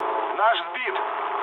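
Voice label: Russian aircraft destroyed mood low, speech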